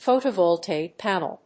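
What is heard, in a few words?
"photovoltaic panel", English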